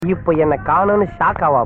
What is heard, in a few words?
Tamil Comedy